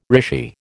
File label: "rishi", American